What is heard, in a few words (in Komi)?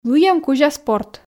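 лыйӧм кузя спорт, Komi, female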